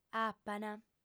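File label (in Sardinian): "apant", female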